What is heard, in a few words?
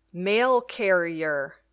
"mail carrier", English